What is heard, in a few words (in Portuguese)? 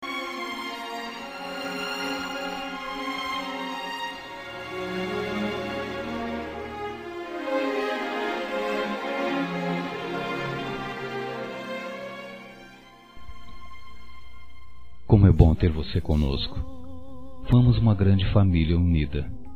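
para esposa, Masculino; Dia das Mães; Telemensagens 2017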